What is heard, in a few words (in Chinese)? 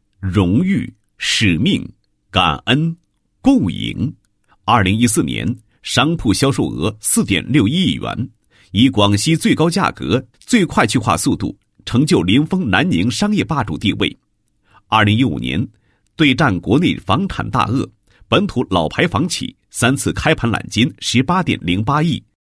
男27-总结; 房地产年终总结